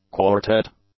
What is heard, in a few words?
"quartet", English; nouns